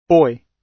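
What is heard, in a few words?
"boy", British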